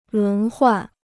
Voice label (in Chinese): "轮换", Chinese